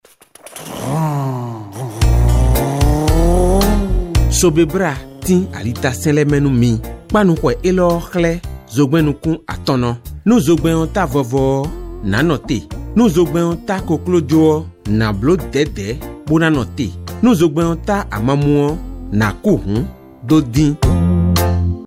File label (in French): CODE DE ROUTE FON